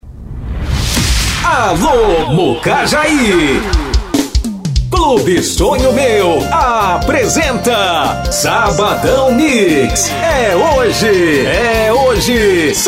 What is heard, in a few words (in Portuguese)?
LOCUTOR